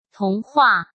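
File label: "童话", Mandarin Chinese; hsk; chinese-flashcards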